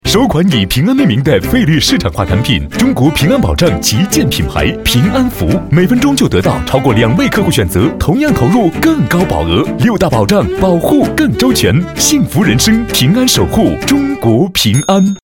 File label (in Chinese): 男 广告; 平安福